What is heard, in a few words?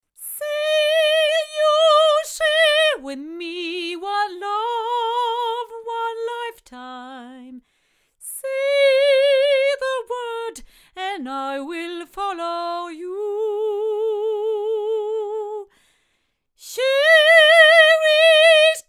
cardioid female